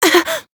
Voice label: Voice damaged; sherinu